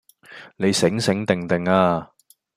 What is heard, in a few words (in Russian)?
Голоса, Гонконгский; 去街周時都要問路